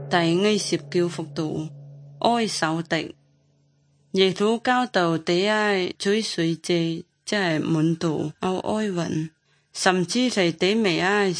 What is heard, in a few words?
good news zhuang guigang guiping; zhuang yongbei; bible